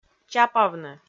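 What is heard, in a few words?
"чапавны", Komi, female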